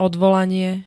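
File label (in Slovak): "odvolanie", Slovak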